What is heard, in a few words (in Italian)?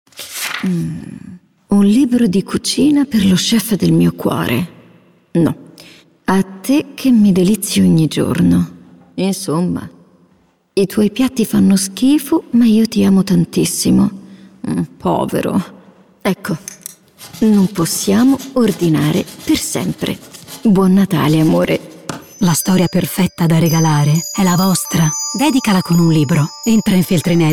Italian, Christmas Commercials; Italy; Christmas Commercials 2023; LaFeltrinelli